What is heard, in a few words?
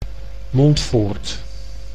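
"Montfoort", Dutch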